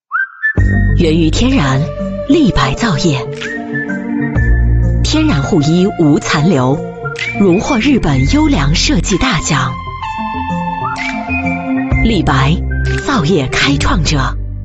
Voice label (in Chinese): 女 广告; 立白TVC15S